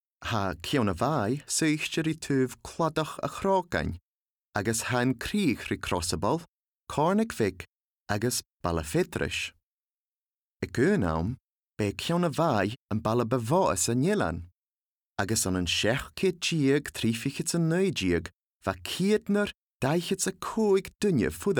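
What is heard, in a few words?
Scottish Gaelic Documentary; GoLocalise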